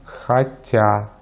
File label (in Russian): "хотя", Russian; Consonantes en ruso; easypronunciation